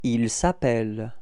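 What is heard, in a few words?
"appeller ils", French; frans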